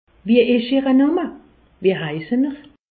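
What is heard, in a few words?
"name", Haut Rhin; medecine; quel est votre nom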